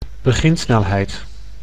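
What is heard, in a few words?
"beginsnelheid", Dutch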